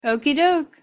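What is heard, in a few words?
"okeydoke", English pronunciation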